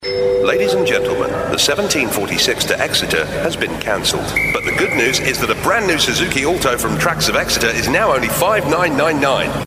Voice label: train announcer